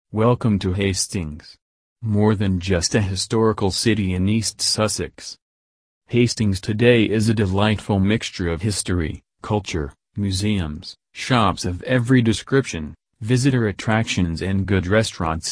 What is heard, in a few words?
american male voice